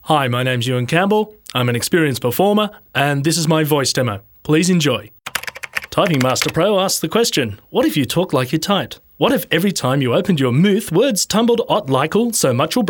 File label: voiceover